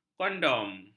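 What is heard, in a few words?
"kondom", Malay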